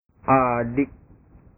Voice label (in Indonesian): "adik", bahasa